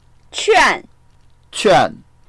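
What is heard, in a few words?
"quan4", Mandarin Chinese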